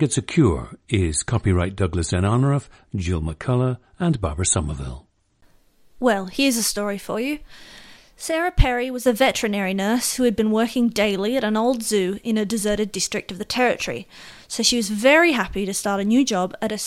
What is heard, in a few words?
australia